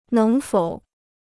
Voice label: "能否", Chinese

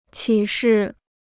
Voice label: "启示", Chinese

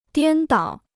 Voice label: "颠倒", Chinese

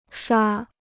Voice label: "刷", Chinese

